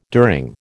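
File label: "during", American English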